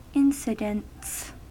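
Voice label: "incidents", American English; pronunciations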